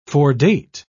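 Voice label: "foredate", en, uk British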